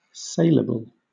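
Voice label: "saleable", British English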